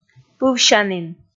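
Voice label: "пывсянін", Komi, female; kpv audio dict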